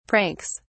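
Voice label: "pranks", English, British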